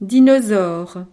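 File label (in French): "dinosaure", French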